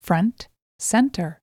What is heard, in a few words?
Front Center; alsa-utils; speaker-test